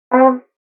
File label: grunt